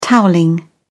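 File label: "towelling", British English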